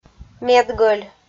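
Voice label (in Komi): "медгӧль", Komi-Zyrian, female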